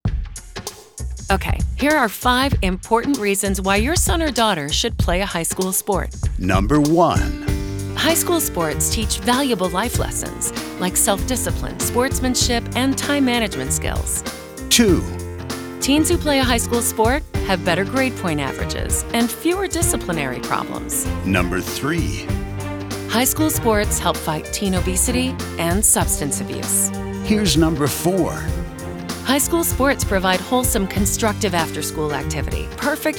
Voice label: audio spot